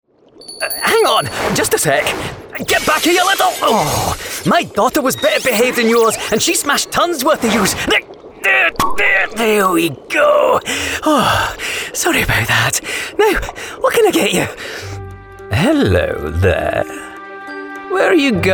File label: English neutral, Direct, Informative, Thoughtful, Classic